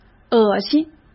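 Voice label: "恶心", Mandarin Chinese; hsk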